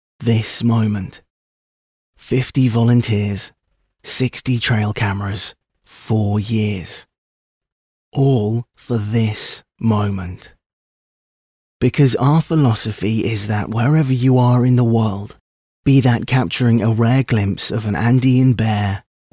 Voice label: Nature Doc Like